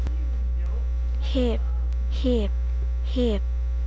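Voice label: "hip", spoken word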